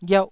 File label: "ghiauh1", Taiwanese syllable